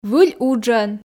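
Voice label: "выль уджӧн", Komi, female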